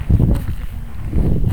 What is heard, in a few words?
cemetery female